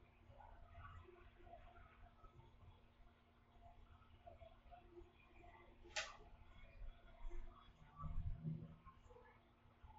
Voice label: Laundry EVP